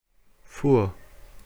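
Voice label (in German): "fuhr", German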